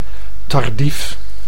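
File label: "tardief", Dutch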